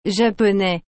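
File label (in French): "japonais", French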